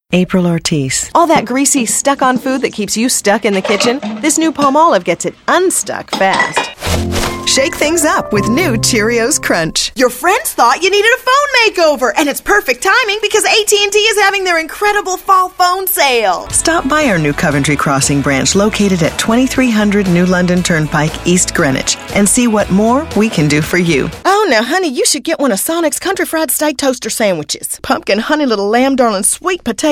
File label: commercial, commercial women